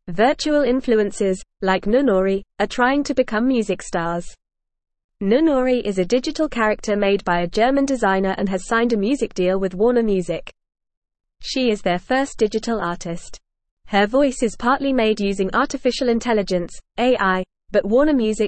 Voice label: English Lower Intermediate FAST Reading; Noonoouri A Pretend Music Star Made on a Computer